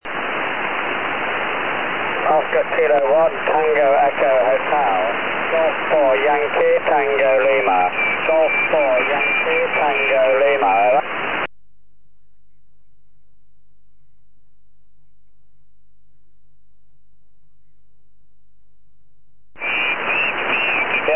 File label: 4m ssb